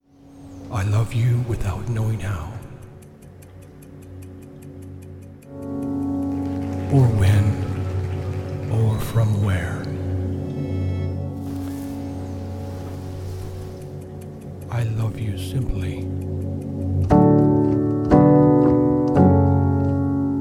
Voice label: Poetry Read